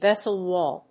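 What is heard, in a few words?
"VESSEL WALL", English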